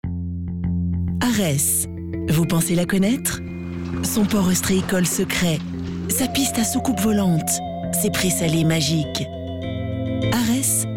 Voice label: Pub Radio Douce Suave souriante; Ares

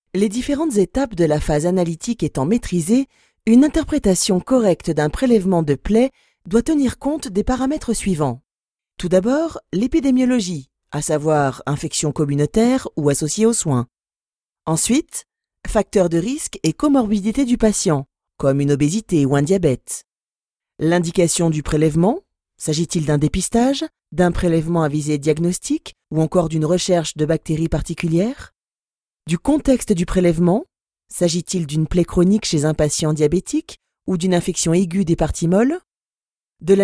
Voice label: ELEARNING Explicatif droit; BACTERIO

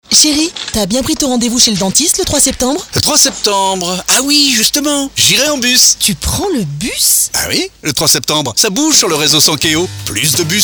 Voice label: PUB RADIO; RTL2 PERPIGNAN SANKEO

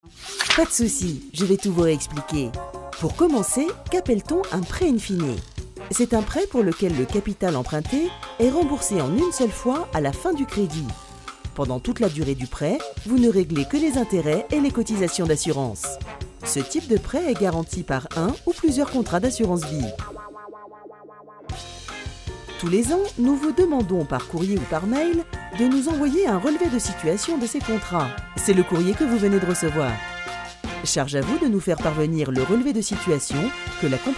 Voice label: TUTO Naturel souriant explicatif; CREDIT FONCIER

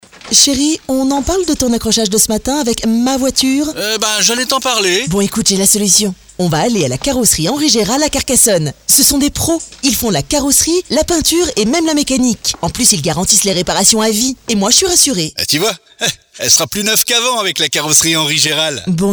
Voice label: Pub radio jouée; RTL2 PERPIGNAN HENRI GERAL

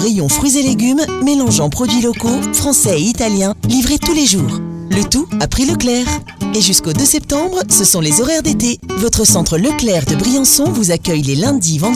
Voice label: Pub Radio locale Dynamique souriante; LECLERC

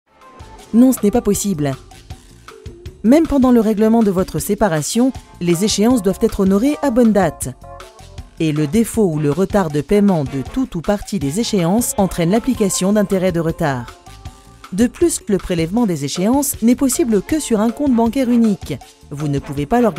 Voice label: TUTO Naturel souriant explicatif; CREDIT FONCIER

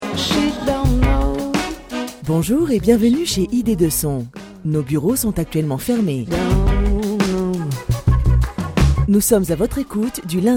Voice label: message d'attente téléphonique; ID2SON

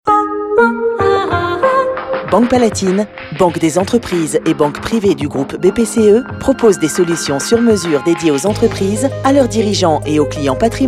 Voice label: Message d attente; BANQUE PALATINE

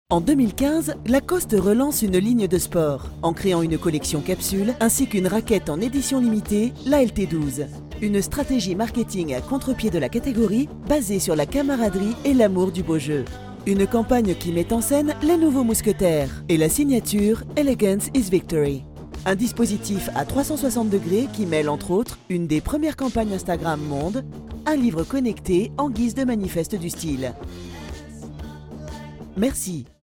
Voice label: Dynamique explicatif; LACOSTE MNSTR

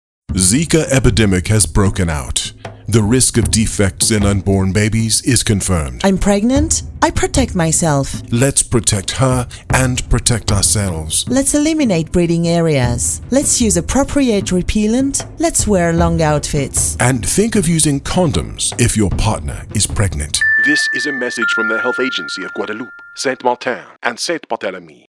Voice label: ANGLAIS Radio alerte info; ZIKA

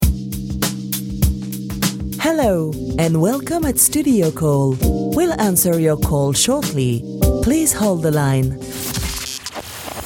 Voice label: ANGLAIS Prédécroché téléphonique; STUDIOCALL

